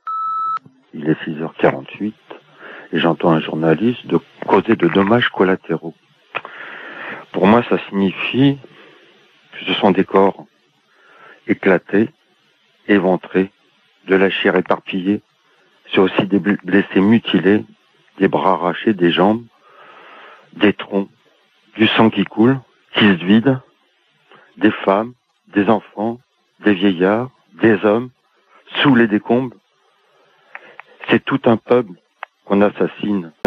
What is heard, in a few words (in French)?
Irak bombardements repondeur